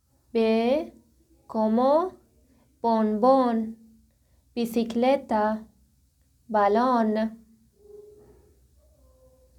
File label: spanish